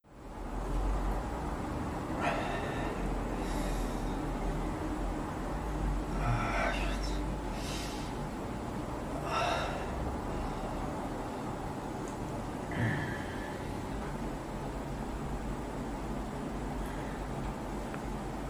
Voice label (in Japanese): イケメンボイス